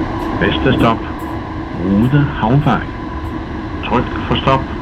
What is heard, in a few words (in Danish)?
Rude Havvej, toglyde